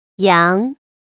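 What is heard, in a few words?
"yang2", Mandarin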